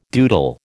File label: "doodle", American